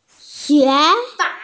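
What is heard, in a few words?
"xue2", Mandarin Chinese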